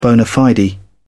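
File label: "bona fide", British English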